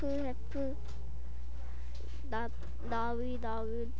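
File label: "low", spoken word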